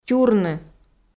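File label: "тюрны", Komi, female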